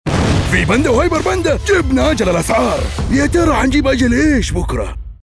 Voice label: Radio Ad; Panda Killer Campaign